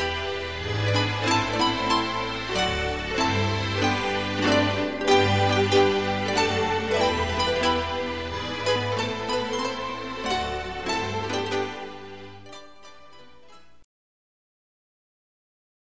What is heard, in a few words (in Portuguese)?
Feliz Natal e Ano Novo de Empr. pra Cliente, F